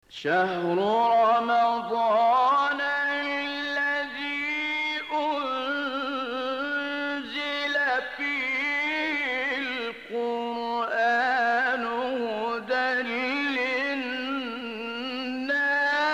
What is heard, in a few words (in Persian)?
تلاوت مجلسی; سید متولی عبدالعال; آیه شهر رمضان الذی